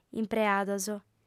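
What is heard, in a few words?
"impreados", Sardinian, female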